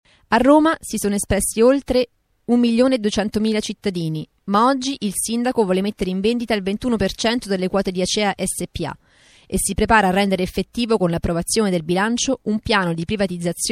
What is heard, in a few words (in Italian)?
spot acqua voce